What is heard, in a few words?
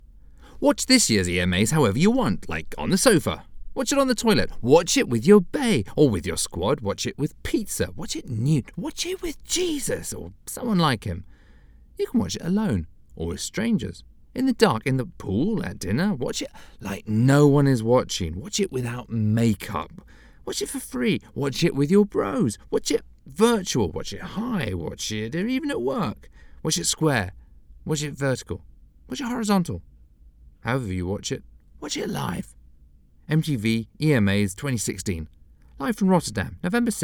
Informational; MTV EMAs